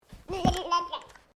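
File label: MALE 2 year old PLAYFUL; Talking Sound Effects; Talking (Sound Effects:Voice)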